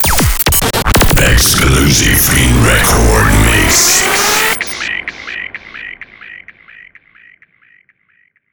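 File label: DJ jingle, announcer; Эксклюзив Ин Рекорд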